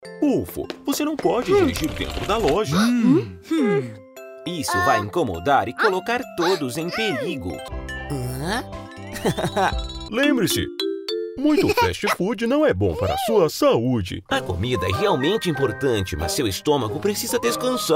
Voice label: portuguese brazilian animation